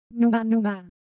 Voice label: Ewe Motion, Ideo Phones

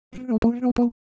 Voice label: Japanese Texture, Ideo Phones

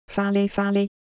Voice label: Ewe, ideophones; Shape

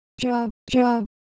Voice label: Semai, ideophones

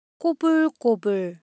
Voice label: Korean, Ideo Phones; Shape

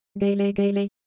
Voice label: Ewe, ideophones; Motion

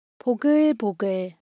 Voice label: Korean Sound, ideophones

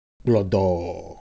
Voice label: Ewe Motion, ideophones

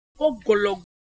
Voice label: Siwu Shape

